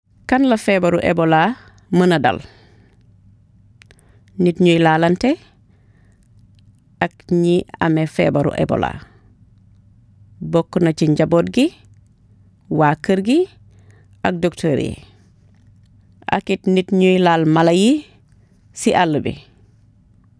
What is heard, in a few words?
wolof; ebola; radio-spots; vhf